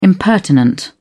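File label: "impertinent", British English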